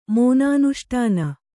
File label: "ಮೋನಾನುಷ್ಠಾನ", Kannada